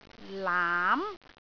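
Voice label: "laam2", Cantonese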